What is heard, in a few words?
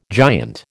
"giant", American